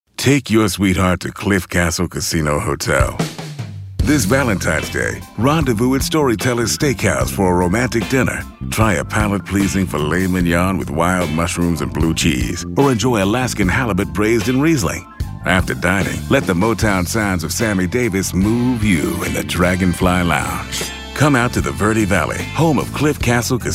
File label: Sexy Sultry